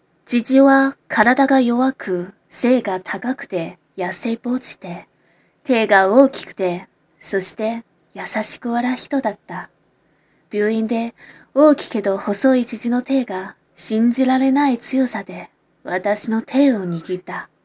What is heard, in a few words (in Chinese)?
旁白